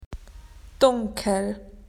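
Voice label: "dark", Australian English; PictureDictionary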